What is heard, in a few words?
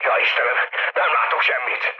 searchlite, mood low, speech hun; War Thunder